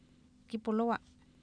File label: kipolowa, Oapan minimal pairs female; Oapan minimal pairs 1998